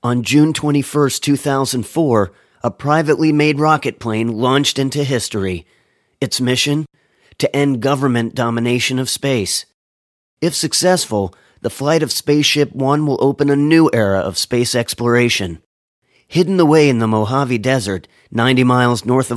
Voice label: Narration; Las Vegas-based and Los Angeles trained Voice Actor